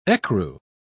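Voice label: "ecru", British English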